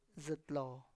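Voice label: "zItlo", Tlacochahuaya Zapotec; cheekbone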